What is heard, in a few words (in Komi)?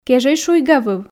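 "кежӧй шуйгавыв", Komi, female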